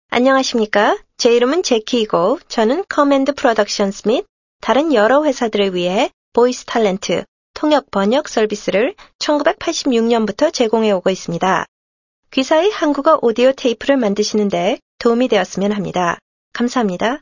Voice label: Korean